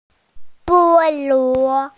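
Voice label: "pineapple", Mandarin Chinese, female; bo1luo2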